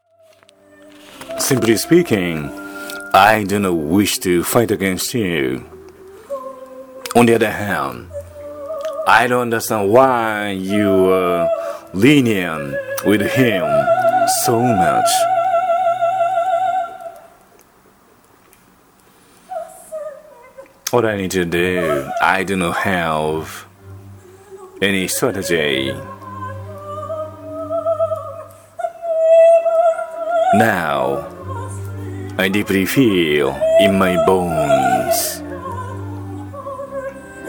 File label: recitative-style lecture